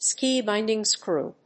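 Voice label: "ski binding screw", English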